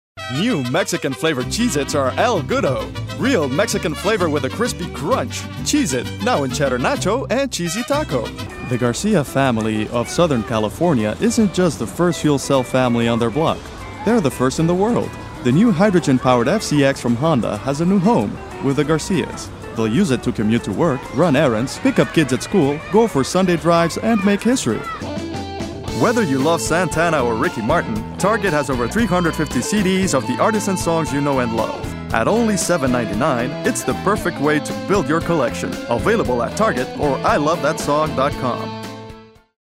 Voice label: English